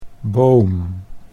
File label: "Boom", Dutch